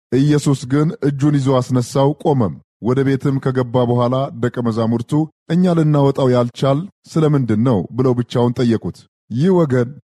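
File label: Amharic, male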